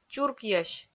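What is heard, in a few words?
"чуркйӧсь", Komi-Zyrian, female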